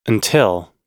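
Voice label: "until", US English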